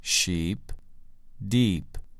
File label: "sheep" "deep"